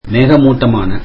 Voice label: tamil cloudy